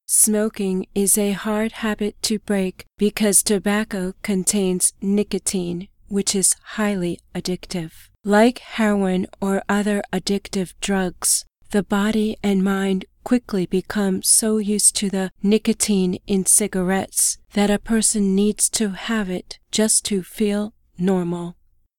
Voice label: Robot